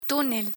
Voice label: "tunel", Spanish